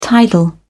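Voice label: "tidal", British English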